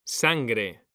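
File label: "sangre", Spanish, male; mekanta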